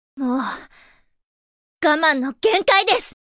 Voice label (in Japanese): エモーション（怒る）「もう、我慢の限界です！」